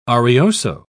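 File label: "arioso", British English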